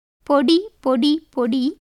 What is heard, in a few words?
Tamil